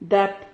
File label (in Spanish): "dap", Silabas